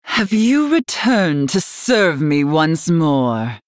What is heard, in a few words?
female night elf start